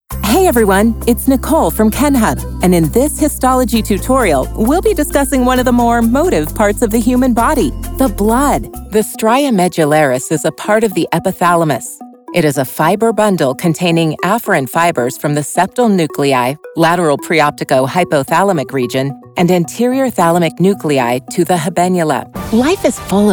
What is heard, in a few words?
english us and canada female generic